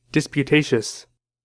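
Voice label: "disputatious", American English; pronunciations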